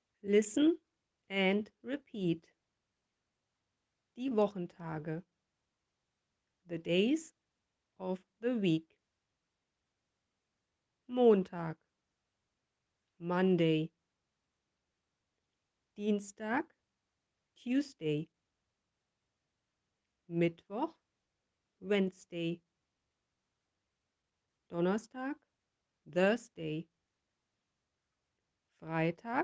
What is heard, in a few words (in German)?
Englisch